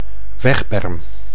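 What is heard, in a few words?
"wegberm", Dutch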